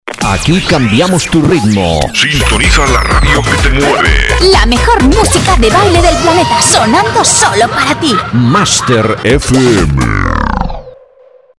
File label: jingle; master fm